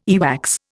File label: "earwax", Indian